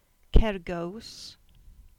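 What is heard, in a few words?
"Kergoos", Cornish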